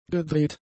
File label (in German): "gedreht", German; adjectives